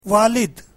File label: urdu father